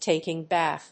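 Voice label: "taking bath", English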